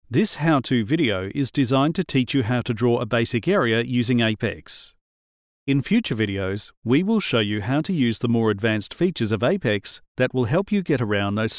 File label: eLearning Demo; Voice; Big Mouth Media